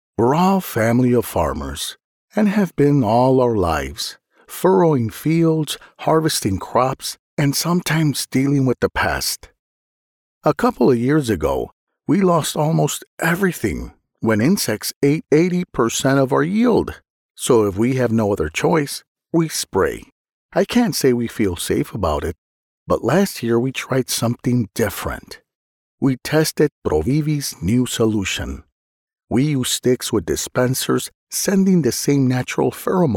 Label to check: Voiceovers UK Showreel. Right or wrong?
Wrong